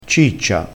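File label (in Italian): "ciccia", dialettale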